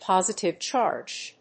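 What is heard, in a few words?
"POSITIVE CHARGE", English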